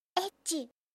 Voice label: anime, Irritated & Angry Phrases from Anime Girls

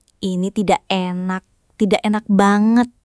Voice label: indonesia, negative phrases

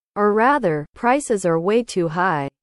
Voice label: english

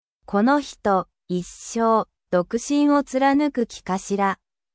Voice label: japan

